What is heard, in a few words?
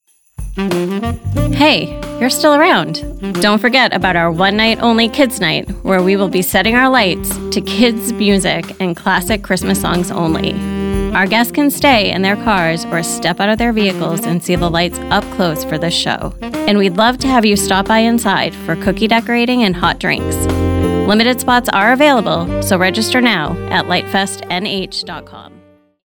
voiceover sample; LightFest